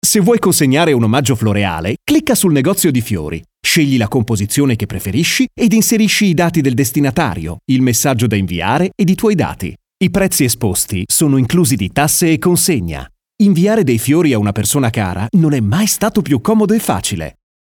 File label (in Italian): ITALIANO DINAMICA